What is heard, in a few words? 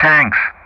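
"tanks", English; Ka-50; Player Target; DCS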